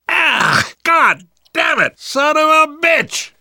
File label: reaction boomer vomit, namvet, survivor voice; Left 4 Dead 2; left4dead2 dlc3